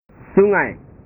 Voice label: "sungai", bahasa